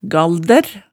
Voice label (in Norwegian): "galdær", hemsedalsmaalet